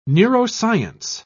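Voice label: "neuroscience", British English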